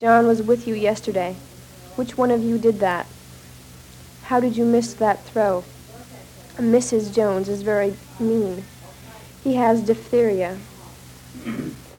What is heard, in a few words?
Reading samples of 22 year old white female Wakefield Michigan, american english dialect